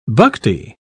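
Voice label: "bhakti", British English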